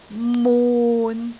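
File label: "mun6", Cantonese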